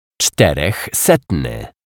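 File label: "czterechsetny", Polish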